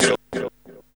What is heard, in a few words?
VOX, Grunge Hip Hop; USB Soundscan vol.34; AKAI; TIMBA